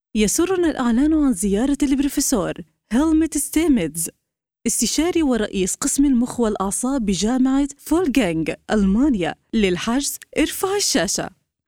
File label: Arabic TV shows